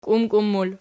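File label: "kiumkium" plural, Archi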